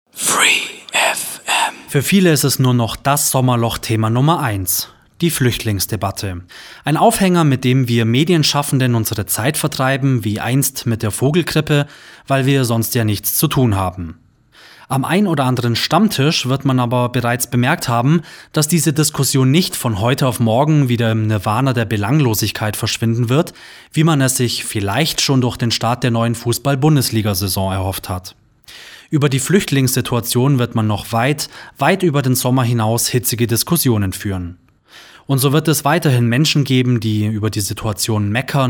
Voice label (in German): kommentar; freefm; fluechtlingsdebatte